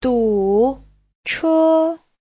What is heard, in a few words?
"du3 che1", chinese; 堵车 : kẹt xe; Giáo trình Hán ngữ